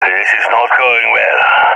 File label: scared; balkan epic